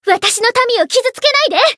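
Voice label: Voice encounter Japanese; tsukiha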